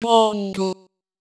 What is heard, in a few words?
bongu, espeak-ng; jicmu gismu